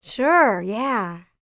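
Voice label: licks, female spoken; ILIO; Vocal Planet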